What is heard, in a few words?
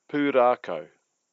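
"purakau", Māori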